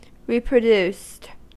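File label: "reproduced", US English; pronunciations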